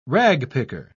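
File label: "ragpicker", British English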